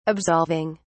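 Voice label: "absolving", British English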